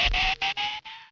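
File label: TV voice; 300 Drum Machines; Yamaha DTXpress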